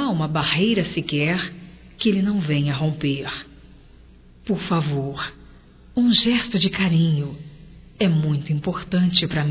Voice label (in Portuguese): EU PRECISO DE AMOR, fem; Telemensagens 2017; Declaração de amor